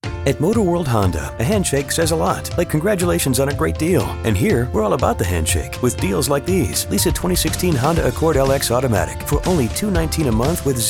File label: Radio; Motorworld; Honda